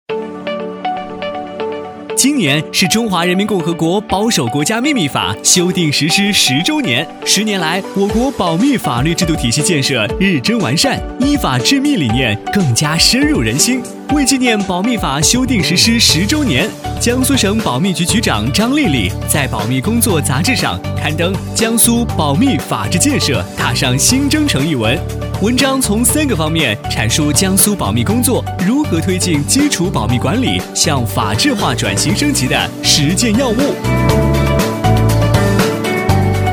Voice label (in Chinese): 男,保守国家秘密法; 飞碟说